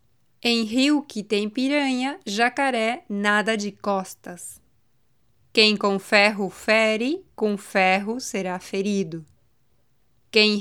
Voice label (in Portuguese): Proverbios Populares, portugues br; letra r en portugues brasileno